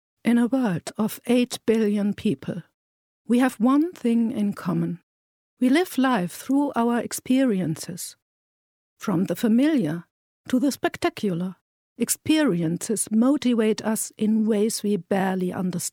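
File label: German female voice over, English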